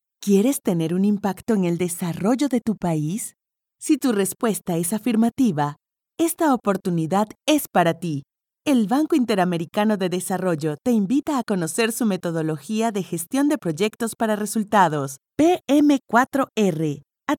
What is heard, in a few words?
Spanish Neutral